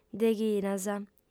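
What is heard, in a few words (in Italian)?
"deghinas", lingua sarda, female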